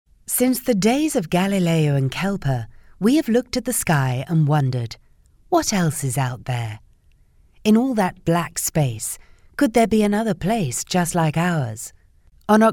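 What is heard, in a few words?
Female English ivr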